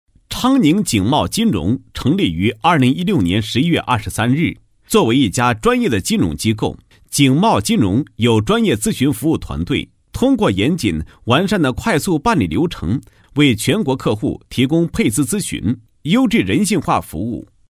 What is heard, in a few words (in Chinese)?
男 企业宣传; 昌宁景茂金融宣传简介